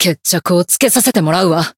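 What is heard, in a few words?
Voice Japanese; limitbreak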